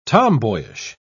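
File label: "tomboyish", British English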